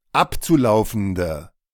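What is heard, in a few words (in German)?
"abzulaufende", German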